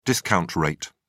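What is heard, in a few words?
"discount rate", English, British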